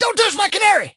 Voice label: hurt

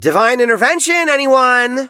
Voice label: hurt vo

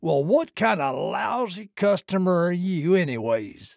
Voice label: trader male neutral sale declined

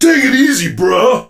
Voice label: hurt vo; 瑟奇

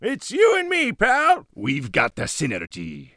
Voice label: Mortar team pissed, en

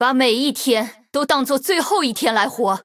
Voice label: moving, zh

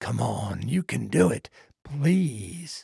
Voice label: trader male like quest declined

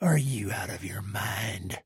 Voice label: trader male neutral quest declined

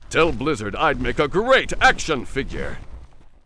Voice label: Windserpent pissed

